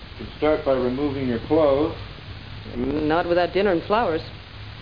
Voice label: STATIC; Babylon 5